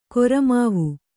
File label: "ಕುರಮಾವು", Kannada